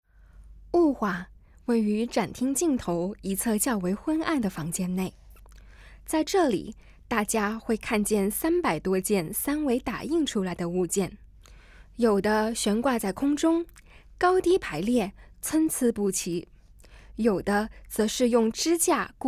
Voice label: Putonghua, audio-guide